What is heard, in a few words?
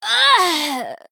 Female Night Elf Death